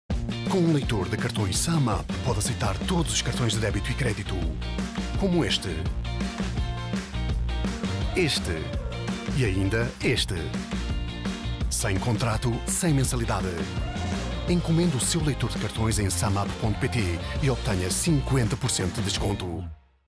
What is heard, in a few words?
tvc portugal; sumup